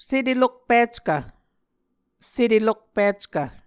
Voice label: "setelokpickv", Muscogee

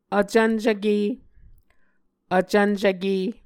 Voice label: "vcvncvke", Muscogee; Mvskoke Words with Voicing Consonants; A Dictionary of Creek/Muskogee; SIL International; Onvkv Ohhvyayices

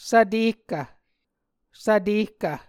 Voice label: "svtehkv", Muscogee

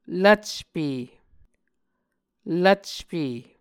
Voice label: "lvcpe", Muscogee

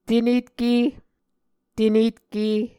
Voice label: "tenetke", Muscogee

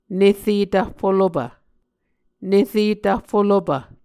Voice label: "nere tvffolopv", Muscogee; Mvskoke Words with Repeated Consonants; A Dictionary of Creek/Muskogee; SIL International

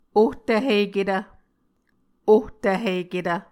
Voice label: "ohtvhiketv", Muscogee

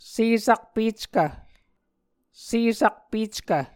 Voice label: "sesakpeckv", Muscogee

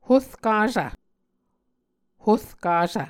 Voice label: "horkasv", Muscogee

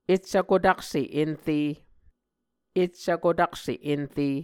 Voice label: "eccvkotakse enre", Muscogee; Mvskoke Words with Repeated Consonants; Martin, Jack B., and Margaret McKane Mauldin. 2023. A Dictionary of Creek/Muskogee

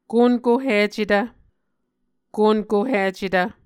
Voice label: "konkohicetv", Muscogee

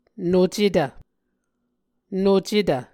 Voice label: "nocetv", Muscogee

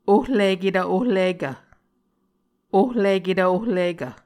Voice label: "ohliketv ohlikv", Muscogee

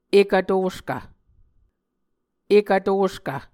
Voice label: "ekv toskv", muscogee; Mvskoke Words with Voicing Consonants; A Dictionary of Creek/Muskogee; SIL International; Onvkv Ohhvyayices

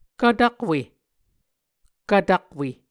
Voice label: "kvtakwe", Muscogee

